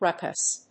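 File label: "ruckus", English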